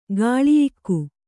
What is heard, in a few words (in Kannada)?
"ಕೌಳೇಯಕ", Kannada